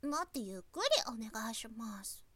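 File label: woman, "motoyukkurionegaisimasu"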